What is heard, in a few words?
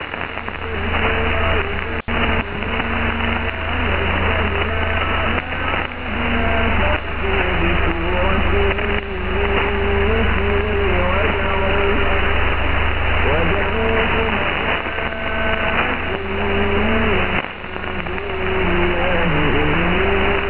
arabic